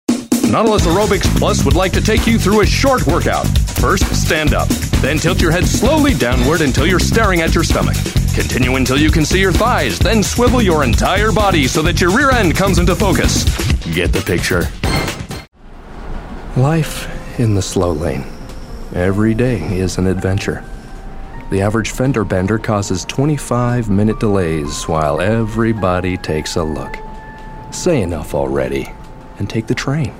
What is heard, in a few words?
Commercial